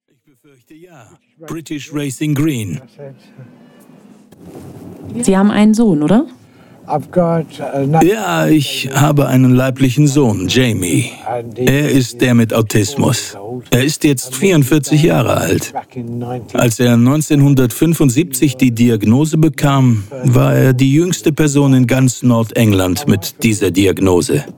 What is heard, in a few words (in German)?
Overvoice reifes Stimmalter